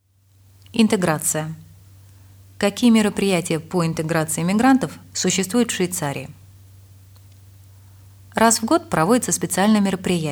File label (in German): Erstinformation Audio Russisch